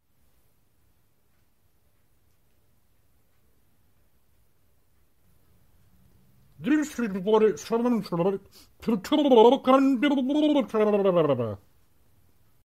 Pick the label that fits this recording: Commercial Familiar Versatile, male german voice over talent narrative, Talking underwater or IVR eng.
Talking underwater